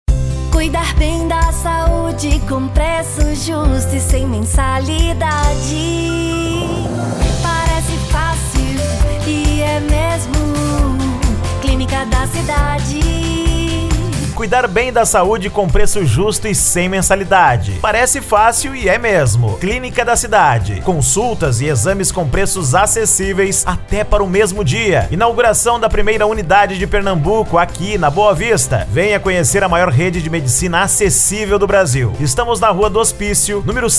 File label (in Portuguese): Locutor; Clinica Da Cidade Recife PE